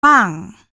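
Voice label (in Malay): "pang", Malay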